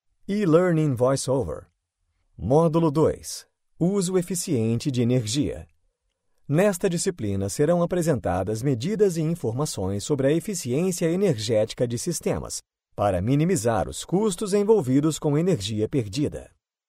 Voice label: portuguese brazilian e-learning